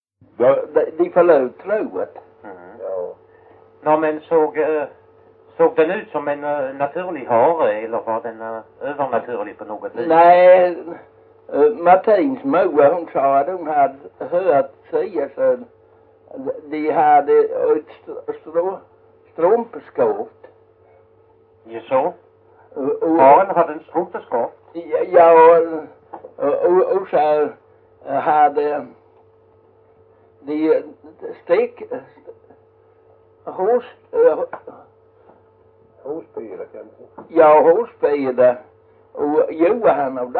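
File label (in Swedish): Jämshög Blekinge, dialekter svenska sagor; Folkminnen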